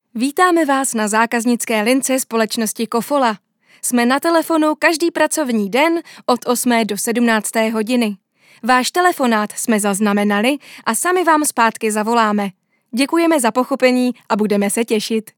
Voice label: ivr; kofola